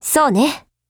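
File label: AGREE, Japanese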